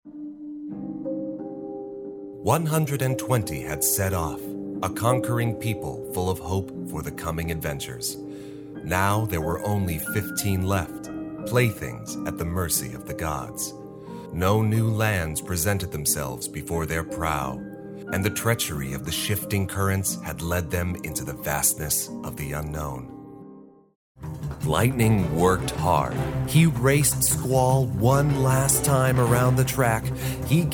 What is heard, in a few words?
narration